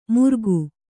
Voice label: "ಮುರ್ಗು", Kannada

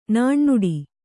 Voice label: "ನುಣ್ಣುಡಿ", kannada

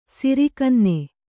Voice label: "ಸಿರಿಕನ್ನೆ", Kannada

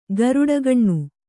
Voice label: "ಗರುಡಗಣ್ಣು", Kannada

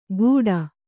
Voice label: "ಗೂಡ", Kannada

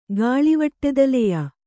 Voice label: "ಗಾಳಿವಟ್ಟೆದಲೆಯ", Kannada

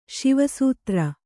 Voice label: "ಶಿವಾಸ್ತ್ರ", Kannada

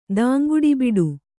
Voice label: "ದಾಂಗುಡಿಬಿಡು", kannada

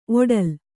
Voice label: "ಒಡಲು", Kannada

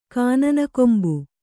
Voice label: "ಕಾನನಕೊಂಬು", Kannada

